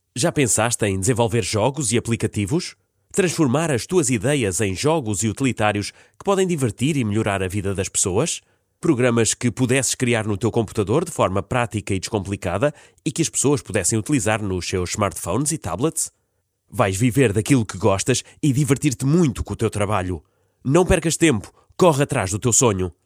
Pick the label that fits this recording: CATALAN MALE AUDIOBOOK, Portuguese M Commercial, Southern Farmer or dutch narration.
Portuguese M Commercial